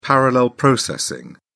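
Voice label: "parallel processing", British English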